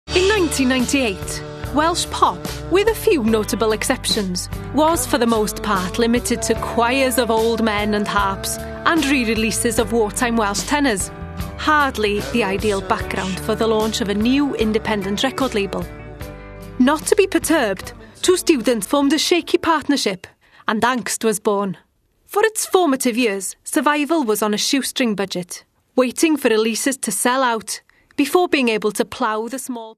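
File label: Welsh English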